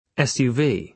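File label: "SUV", British English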